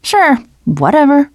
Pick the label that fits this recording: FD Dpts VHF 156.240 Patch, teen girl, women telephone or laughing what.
teen girl